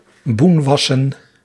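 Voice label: "boenwassen", Dutch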